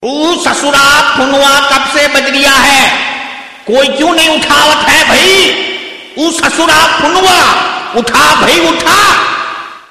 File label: Funny Bhojpuri Style Ringtone; Oo Sasura Phonewa Kab Se Bajjriya Hai